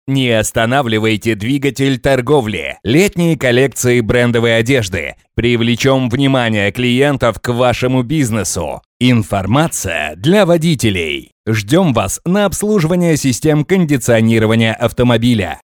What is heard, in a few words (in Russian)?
Быстрый радиоролик